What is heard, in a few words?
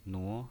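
"nuo" second tone, pinyin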